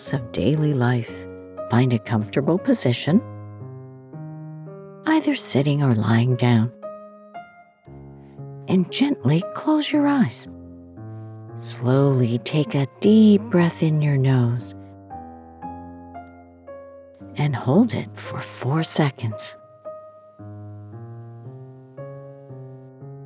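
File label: Meditation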